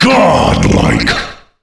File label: godlike, Announcer Voice Lines; Unreal Tournament